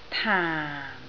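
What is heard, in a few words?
"taan4", Cantonese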